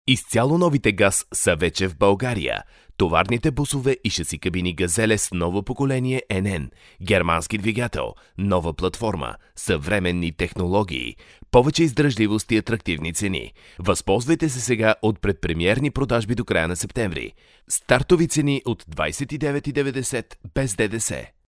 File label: bulgarian voiceover locutor bulgaro